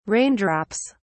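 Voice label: "raindrops", British English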